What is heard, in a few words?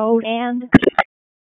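Raleigh Police Special Operations Tactical, p25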